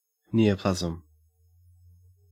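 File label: "neoplasm", English, Australian accent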